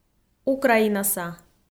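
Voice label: "украинаса", Komi, female; kpv audio dict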